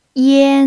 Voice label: "yan1", Chinese

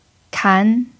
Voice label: "kan2", chinese

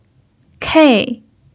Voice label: "kei4", chinese

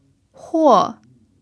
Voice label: "huo4", chinese

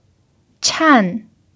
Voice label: "chan4", chinese

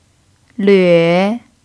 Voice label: "lve3", chinese

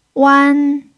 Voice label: "wan1", chinese

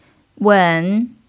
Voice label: "wen3", chinese

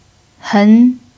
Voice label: "hen2", chinese

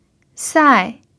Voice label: "sai4", Chinese

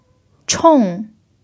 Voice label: "chong4", chinese

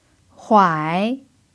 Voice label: "huai3", chinese

